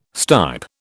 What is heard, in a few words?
"stipe", British